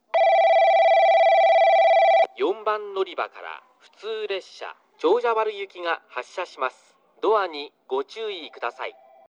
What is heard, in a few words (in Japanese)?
4番のりば発車放送（普通 長者原行き）